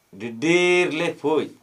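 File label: Gta Birds roller, Dialect: Hill; Coracias benghalensis, roller; Tikarpoda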